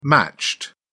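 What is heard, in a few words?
"matched", British English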